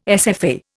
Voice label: "SFA", Indian